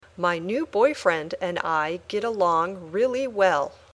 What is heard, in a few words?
Dictation